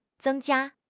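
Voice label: "zeng1 jia1", Mandarin Chinese; HSK4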